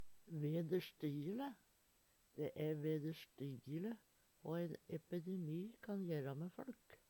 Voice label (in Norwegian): "vederstyjjele", Numedalsmål; Dæ æ vederstyjjele hå ein epedemi kan jera mæ føLk.